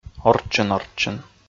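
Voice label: "орччӧн-орччӧн", Komi, male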